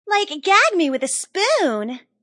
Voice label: Tn Girl Sys Gag Me Sp, Teen Girl Says Gag Me With The Spoon; Talking